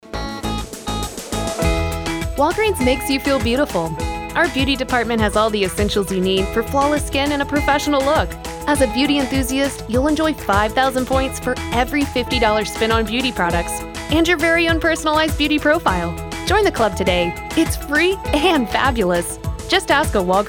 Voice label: Advertising Young Adult